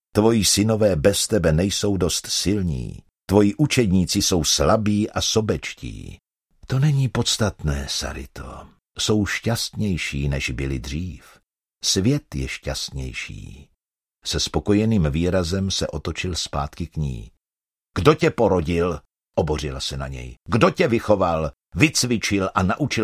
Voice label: czech audiobooks